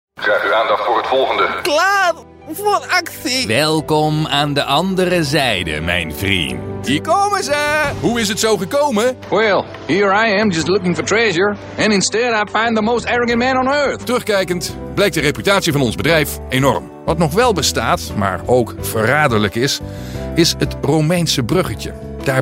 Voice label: dutch voice characters